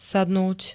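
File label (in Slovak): "sadnúť", Slovak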